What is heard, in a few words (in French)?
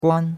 "guan1", chinois; Cours-de-Chinois